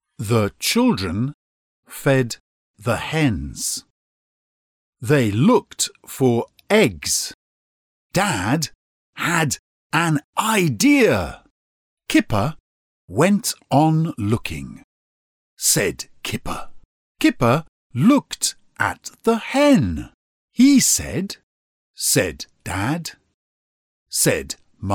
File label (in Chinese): 外籍母语男慢速绘本